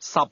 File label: "ten", cantonese; numbers